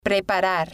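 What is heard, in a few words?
"preparar", Spanish, female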